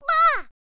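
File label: "baa", girl, Japanese; voice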